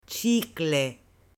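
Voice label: "chicle", Spanish, female; AppLenguaje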